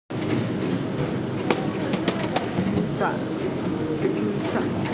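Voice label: "chi1fan4", Mandarin Chinese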